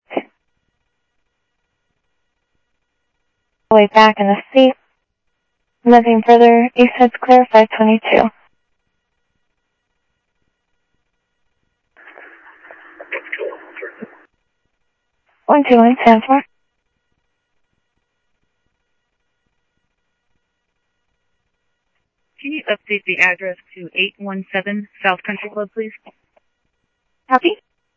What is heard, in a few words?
Sheriff, scanner audio